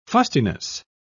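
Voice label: "fustiness", British English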